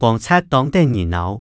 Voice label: 黃蚻當得人惱, 客語

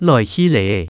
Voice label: 來去吔, 客語

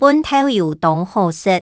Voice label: 搵豆油當好食, 客語

